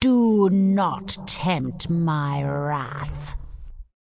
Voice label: Undead Female Magic NPC Pissed